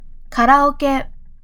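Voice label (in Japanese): "カラオケ", Japanese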